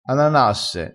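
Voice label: "ananasse", Italian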